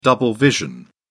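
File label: "double vision", British English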